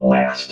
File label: "last", robot